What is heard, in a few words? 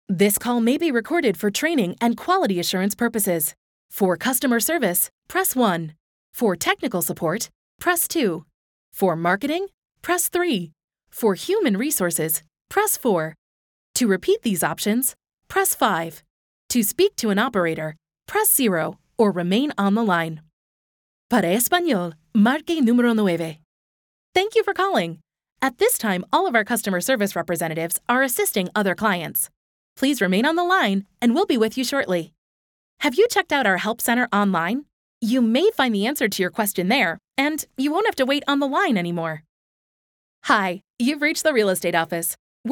Telephone IVR and Voicemail, voiceover